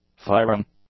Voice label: "firing", English; nouns

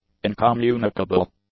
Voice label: "incommunicable", English; adjectives

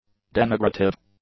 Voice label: "denigrative", english; adjectives

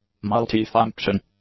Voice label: "multi-function", English; adjectives

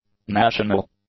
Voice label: "national", english; adjectives

